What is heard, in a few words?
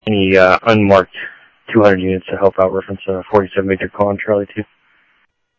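Phoenix police department, scanner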